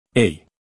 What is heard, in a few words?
Phoneme Male; Commonscript; Lynguistykon